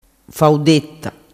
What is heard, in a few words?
"faudetta", dialettu